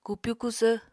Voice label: "kupyu kusvr", Apatani

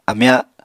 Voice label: "amya", Apatani

